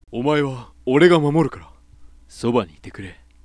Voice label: sample sweet low, voice